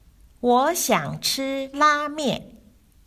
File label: chinese story